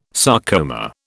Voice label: "sarcoma", British